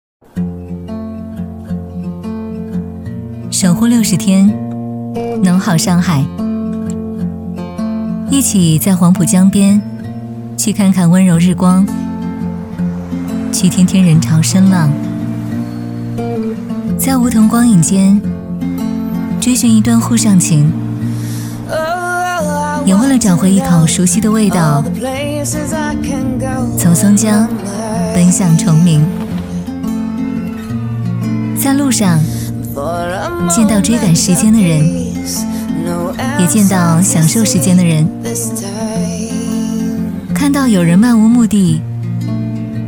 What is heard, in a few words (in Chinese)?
女 品牌短片; 美团出行×滴露